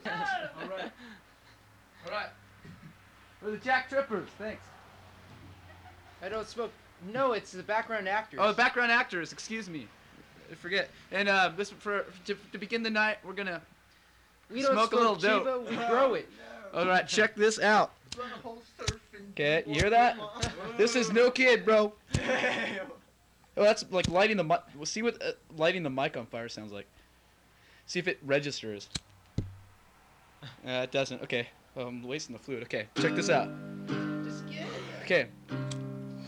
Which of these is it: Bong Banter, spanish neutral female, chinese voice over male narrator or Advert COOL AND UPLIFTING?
Bong Banter